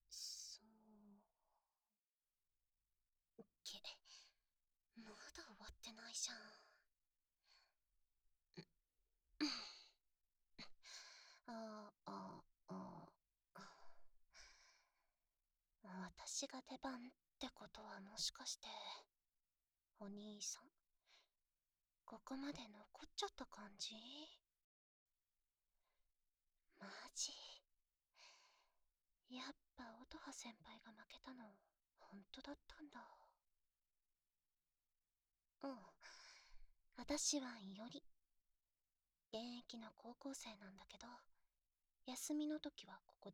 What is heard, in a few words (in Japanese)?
ASMR施術(アラームあり); 伊織